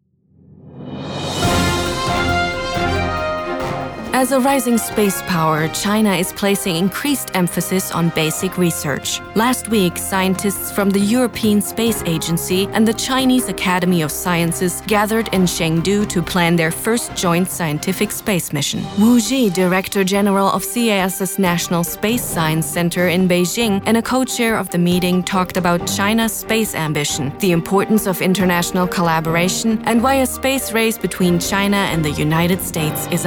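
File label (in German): US English, Kommentar Neutral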